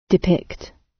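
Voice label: "depict", British English; Design and innovation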